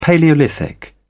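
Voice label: "palaeolithic", British English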